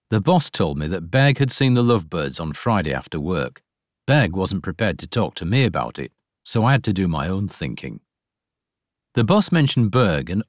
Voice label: Audiobooks Fiction Crime